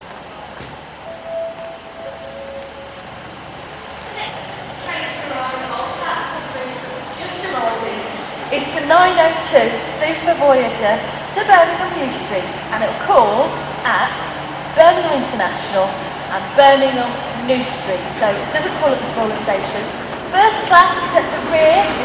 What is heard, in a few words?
train announcement